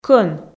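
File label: "кӧн", Komi, female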